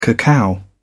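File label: "cacao", British English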